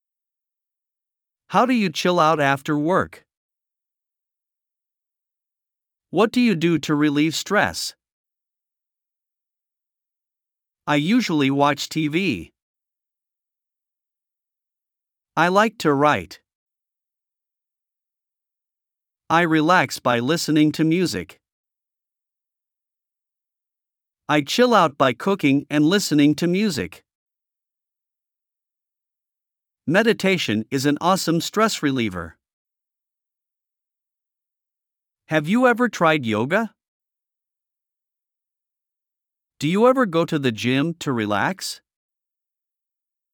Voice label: Relaxing Phrases

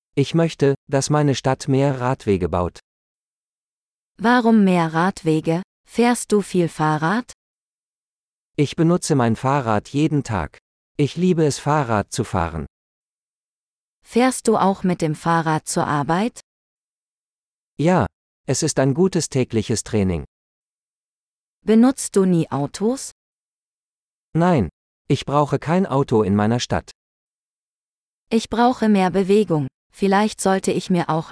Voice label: Biking - Dialogue, German; sustainability and climate change; de-en

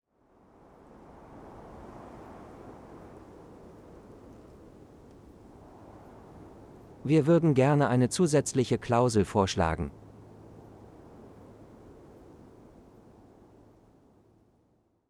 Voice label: Relax Wind Phrases, de-en; Business Negotiations

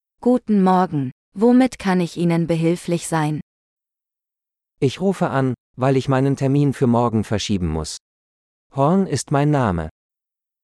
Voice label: Cancelling Formal Appointments - Dialogue, German; business; de-en

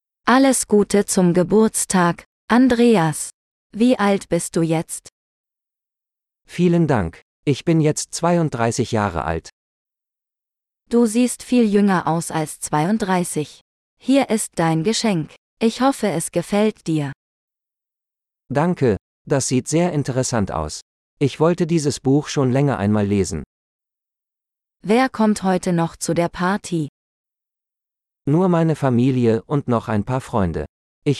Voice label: Celebrating a Birthday - Dialogue, German; de-en

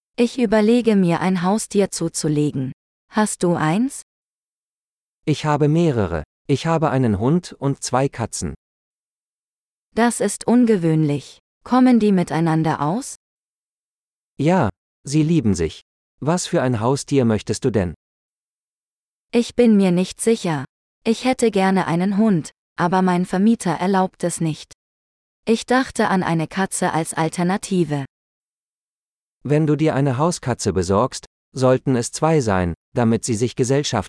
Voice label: Pets - Dialogue, German; conversations-advanced-course

